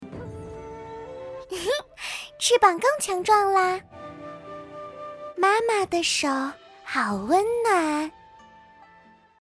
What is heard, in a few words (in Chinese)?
游戏, 超可爱萝莉